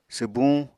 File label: "swbuñ", apatani